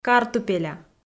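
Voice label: "картупеля", Komi, female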